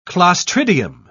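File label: "clostridium", British English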